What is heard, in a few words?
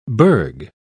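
"burg", British English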